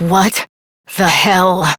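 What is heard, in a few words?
Voice, English; New War; Operator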